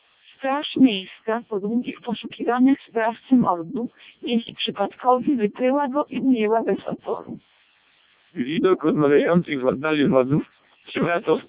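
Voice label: Polish speech, CODEC2 vocoder; CODEC2 700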